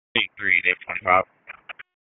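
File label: Dispatch, p25; WSO DISP 3; wake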